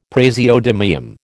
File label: "praseodymium", American